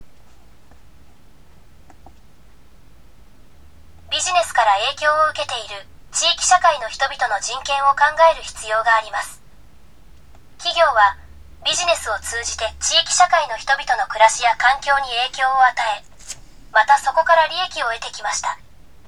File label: elearning; japan; business and human rights